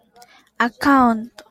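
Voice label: "account", Japanese, female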